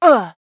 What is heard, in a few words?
pain; raze